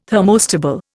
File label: "thermostable", Indian